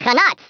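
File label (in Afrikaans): "Grenade", Afrikaans; Speech; International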